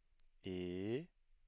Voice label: rising tone

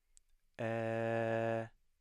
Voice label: mid-low front unrounded vowel

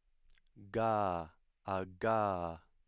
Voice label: voiced velar stop

